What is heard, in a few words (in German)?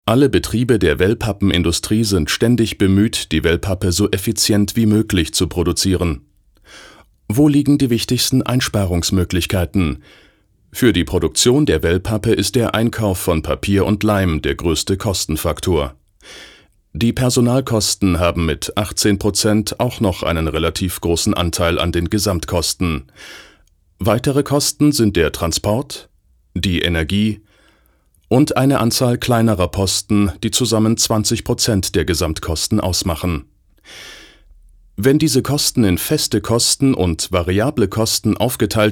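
elearning, deutsch männer; sprecher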